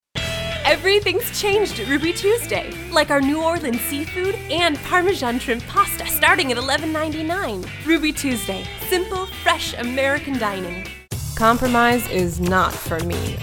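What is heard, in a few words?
US, commercial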